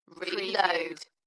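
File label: British female Reload; Dalekness; beanstalk audio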